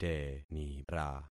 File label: "tenira", concat syllables; Affixing experiment